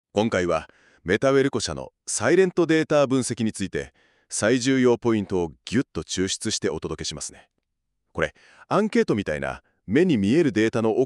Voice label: narration